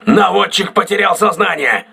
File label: gunner contused, crew ships russian